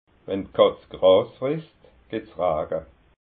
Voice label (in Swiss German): "gras", alsace